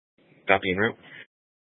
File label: Mesa PD Dispatch; 1 Fiesta Dispatch